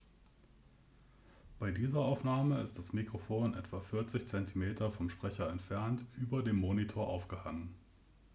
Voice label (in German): NZXT Capsule Mini indirekt